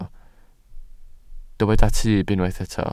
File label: "tyb", Welsh